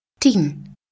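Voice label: "ti Gen" Dutch female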